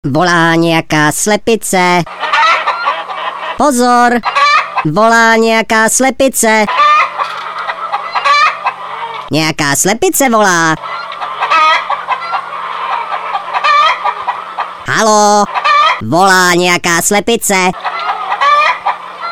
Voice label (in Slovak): sms hlásky cz